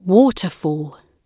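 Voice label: "waterfall", British English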